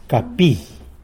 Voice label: "capi", tlacolula; shrine